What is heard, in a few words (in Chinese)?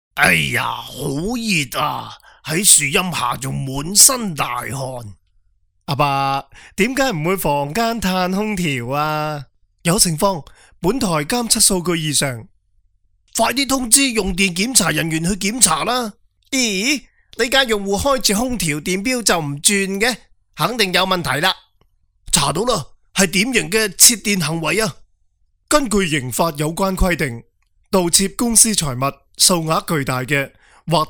男粤 动画 角色 多个角色; 粤语; 供电局